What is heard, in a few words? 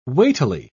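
"weightily", British English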